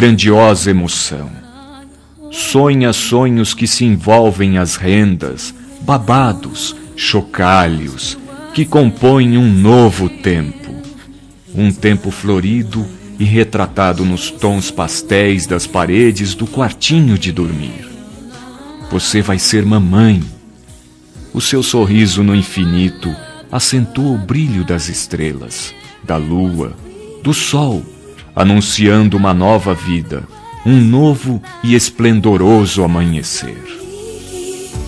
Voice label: NASCIMENTO MENSAGEM P ESPERA UM BEBE MASC, Telemensagens, Maternidade

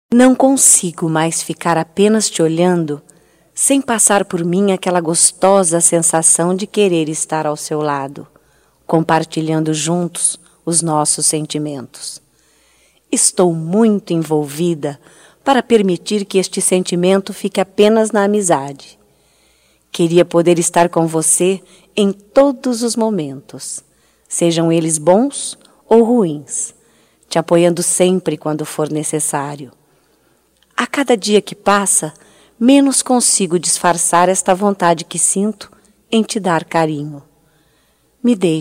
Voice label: Paquera Feminino, Voz; Telemensagens 2017

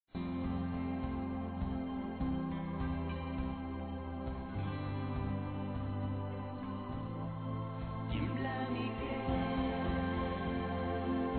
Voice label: Amor Não Correspondido, MASC, Telemensagens

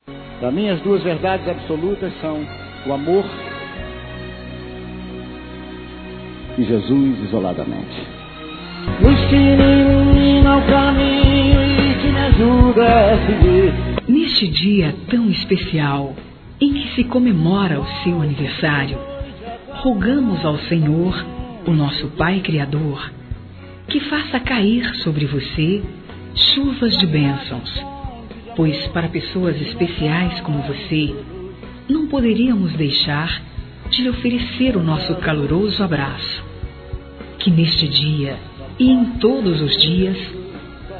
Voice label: Católico Feminino, Telemensagens Aniversários; ANIV. Evangelico

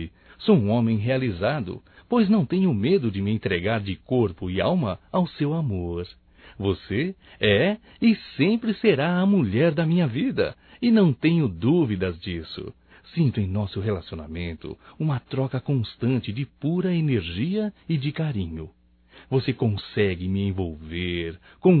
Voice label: ANIV Romântico (M)

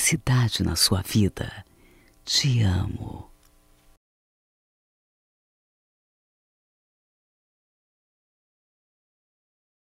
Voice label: Você Feminino; Telemensagens 2017; MOMENTOS DE AMOR

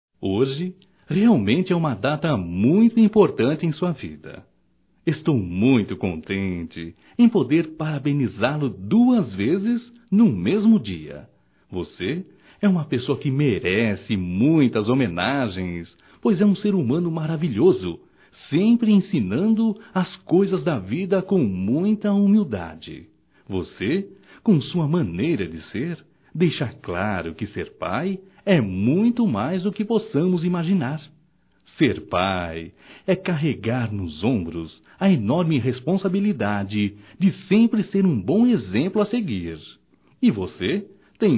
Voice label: Dia dos Pais Aniversariante Masculino

